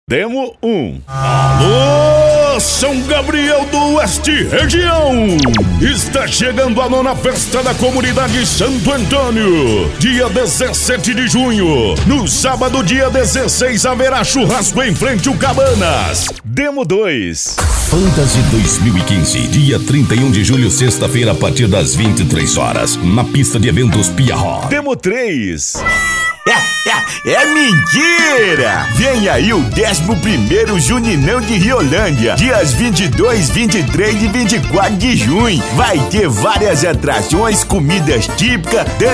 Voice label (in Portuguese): locutor, offs vinhetas spots comerciais